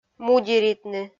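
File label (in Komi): "мудеритны", Komi, female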